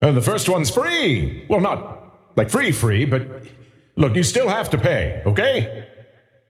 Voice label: scepter announce in game; dota